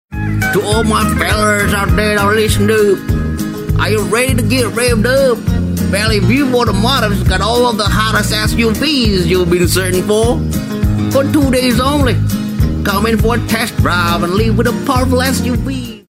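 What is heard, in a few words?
English casual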